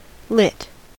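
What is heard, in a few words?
"lit", US English; pronunciations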